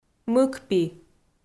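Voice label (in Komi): "мыкпи", Komi, female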